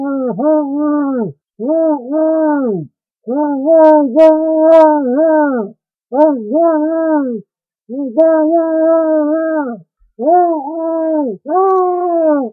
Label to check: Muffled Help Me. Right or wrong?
Right